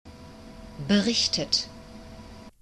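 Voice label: "berichtet", German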